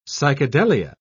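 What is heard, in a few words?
"psychedelia", British English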